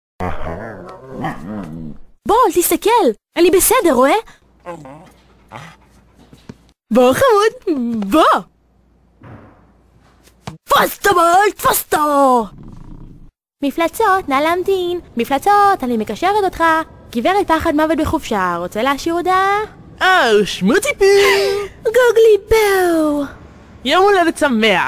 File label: hebrew cartoon animation